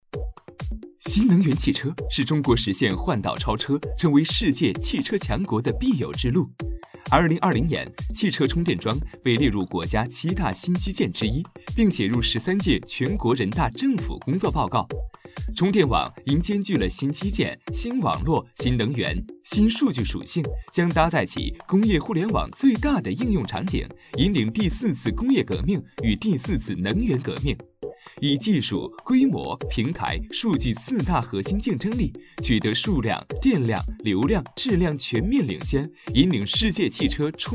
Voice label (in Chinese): 男 广告 年轻阳光动画; 汽车充电桩推广